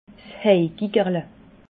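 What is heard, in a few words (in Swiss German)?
"heigigerle", Alsatian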